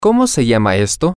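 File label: what is this called, spanish, male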